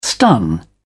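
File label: "stun", English, uk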